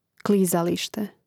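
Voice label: "klizalište", Croatian; Mrežnik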